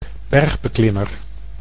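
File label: "bergbeklimmer", Dutch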